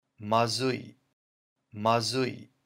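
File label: "Mazui", Japanese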